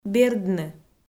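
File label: "бердны", Komi-Zyrian, female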